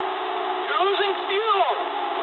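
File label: English tank damaged mood low; speech; War Thunder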